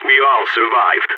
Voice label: radio bot end clean, balkan; vo

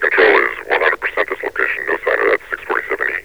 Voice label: control 100 percent, metropolice vo; Half Life Alyx

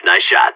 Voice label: nice shot, player vo seal; Counter Strike; CS:GO

